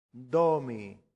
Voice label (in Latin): "domi", latin; santillana